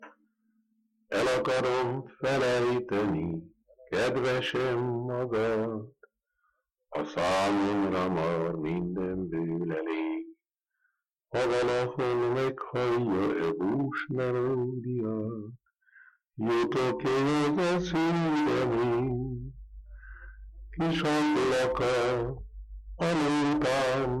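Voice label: dialect collection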